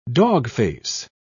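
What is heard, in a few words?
"dogface", British English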